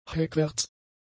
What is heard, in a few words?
"heckwärts", German; adjectives